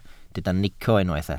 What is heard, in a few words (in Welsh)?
"nico", Welsh